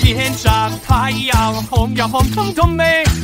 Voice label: scout battlecry; cnscout